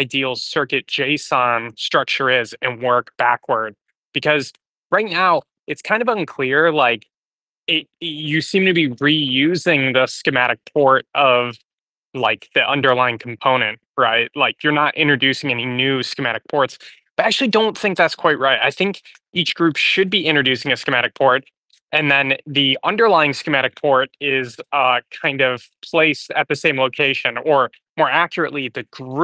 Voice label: voice message